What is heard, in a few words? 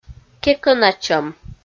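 "кекӧначӧм", Komi, female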